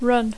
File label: "run", English